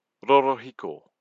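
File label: "rorohiko", Maori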